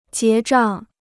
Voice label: "结账", Chinese